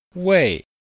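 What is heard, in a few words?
"wei4", Chinese; Cours de Chinois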